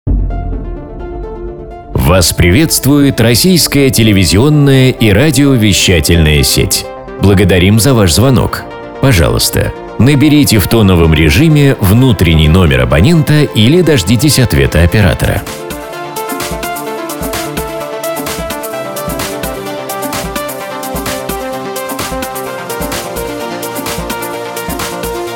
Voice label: M IVR voice